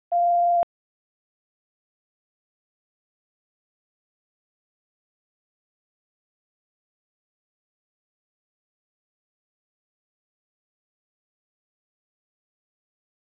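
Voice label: Police Dispatch, p25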